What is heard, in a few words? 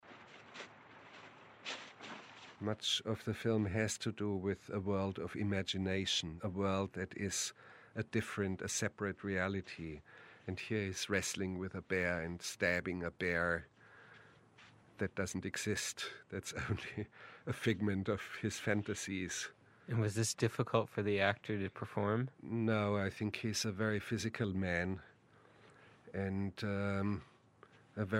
Commentary; Herzog; Heart of Glass